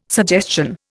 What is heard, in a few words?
"suggestion", Indian